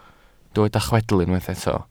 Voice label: "chwedl", Welsh

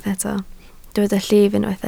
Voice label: "llif", Welsh

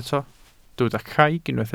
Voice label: "craig", Welsh

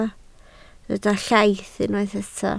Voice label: "llaith", Welsh

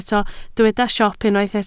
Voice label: "siop", Welsh

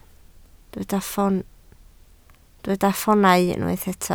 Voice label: "ffoonau", Welsh

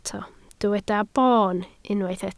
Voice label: "boon", Welsh

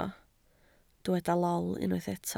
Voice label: "lol", Welsh

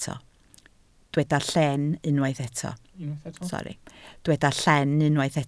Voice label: "llen", Welsh

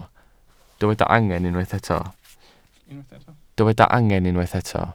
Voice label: "angen", Welsh

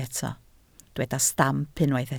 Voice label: "stamp", Welsh

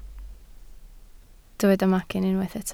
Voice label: "macyn", Welsh

